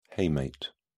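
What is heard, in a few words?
"hamate", British English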